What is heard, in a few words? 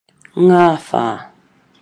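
"ngawha", Māori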